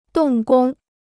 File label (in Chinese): "动工", Chinese